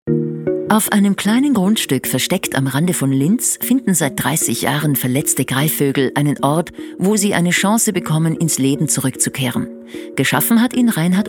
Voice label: medley dokus

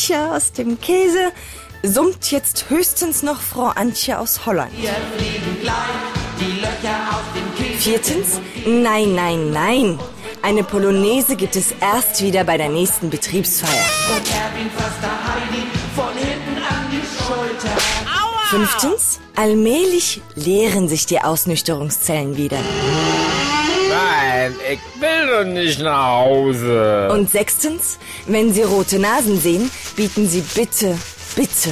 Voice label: werbung fasching

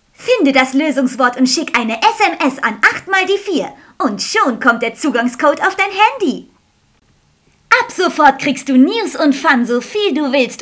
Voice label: sprechprobe werbung

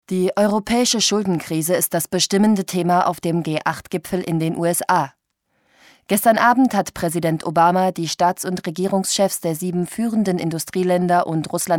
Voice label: nachrichten

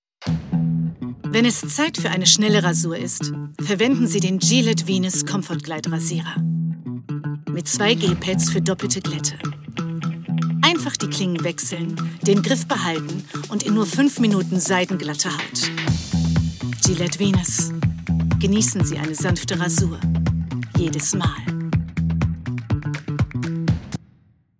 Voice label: werbung; gillette venus

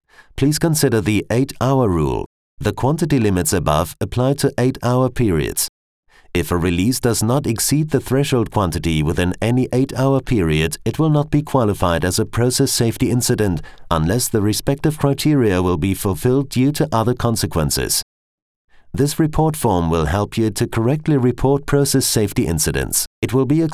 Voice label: englisch e-learning; Muttersprache Deutsch

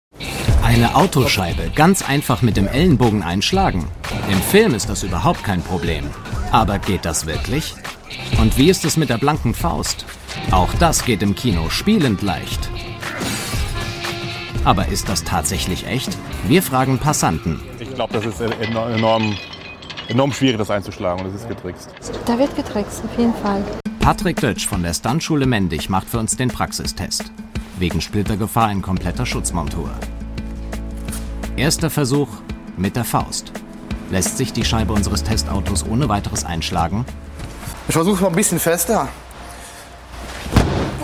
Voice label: tv kommentar

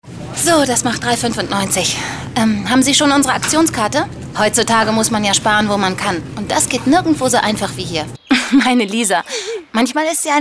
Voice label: diverse rollen

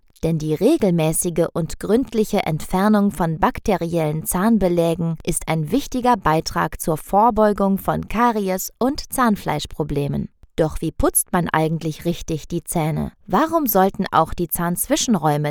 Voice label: erklarfilm mundhygiene

